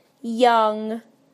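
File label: "young", British English; PictureDictionary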